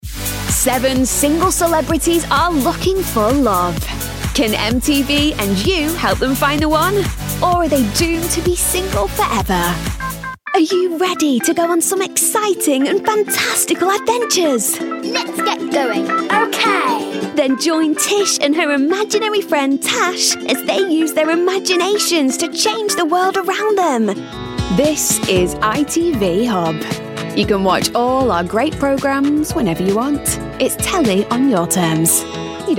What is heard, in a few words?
promo, Northern Voice Over